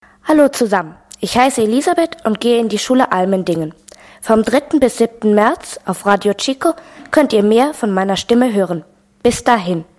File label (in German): Ankündigungsjingle